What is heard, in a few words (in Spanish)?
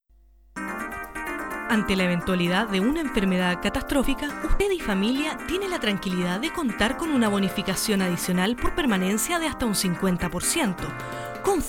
espanol comercial; demo comercial; consalud